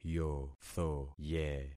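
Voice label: "yothoye", concatenated syllables; Affixing experiment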